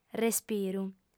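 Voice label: "respiru", female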